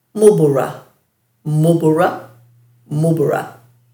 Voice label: "mubura-", Dhurga